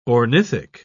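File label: "ornithic", British English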